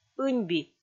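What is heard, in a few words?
"ыньби", Komi, female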